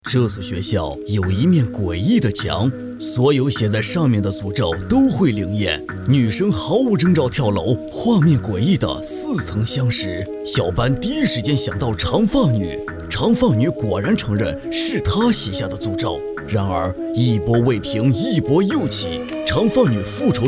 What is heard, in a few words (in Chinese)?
悬疑解说 电影专业配音